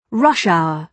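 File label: "rush hour", British English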